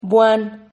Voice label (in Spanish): "buan", Silabas